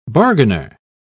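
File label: "bargainer", British English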